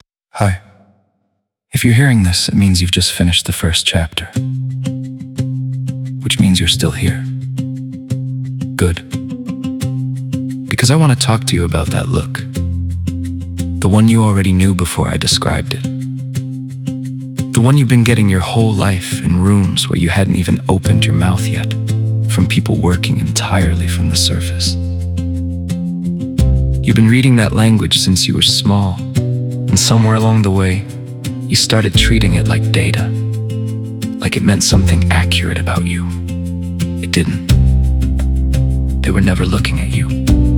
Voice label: High Warmer, Male; Chapter 1